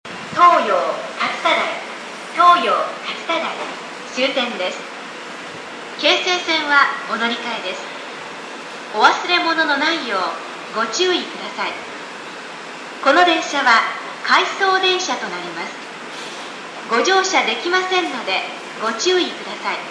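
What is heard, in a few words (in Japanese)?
駅放送, 旧放送 1番線 （回送） 接近; toyo-katsutadai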